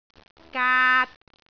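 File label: "gaat3", Cantonese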